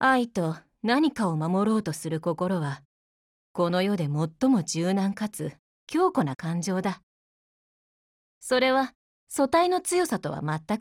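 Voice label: dialogue Japanese; KSVK Mod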